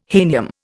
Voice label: "hahnium", Indian